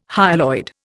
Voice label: "hyaloid", Indian

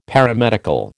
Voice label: "Paramedical", American

